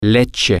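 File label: milk, Spanish, male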